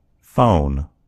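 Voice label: "phone", American English; gcompris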